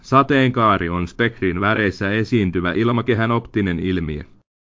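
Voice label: speaker, American English; piper-voices; fi FI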